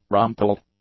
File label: "rumpled", English; adjectives